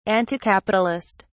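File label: "anticapitalist", English, British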